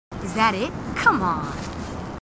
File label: marines female, marine tough